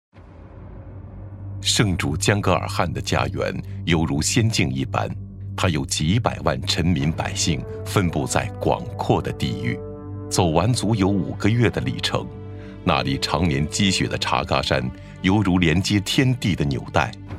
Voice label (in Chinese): 男, 纪录片, 记叙讲述; 蒙古族的史诗; 大气舒缓 纪实记录